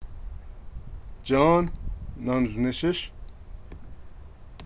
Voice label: John are you working, Navajo

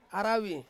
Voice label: "aravi", Ansus; to sail

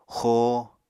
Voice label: "xoo", Apatani

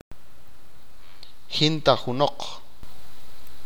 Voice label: no one, achi

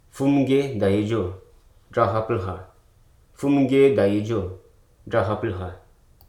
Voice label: "impending", Hruso

